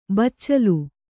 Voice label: "ಬಚ್ಚಲ್", Kannada

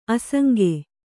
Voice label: "ಆಸಂಗ", kannada

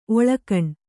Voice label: "ಒಳಗಾಣ್" Kannada

